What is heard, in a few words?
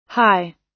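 "high", US English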